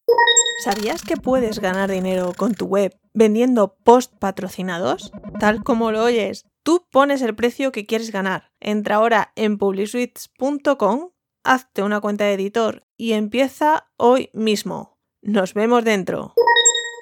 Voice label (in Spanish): publicidad; PUBLISUITES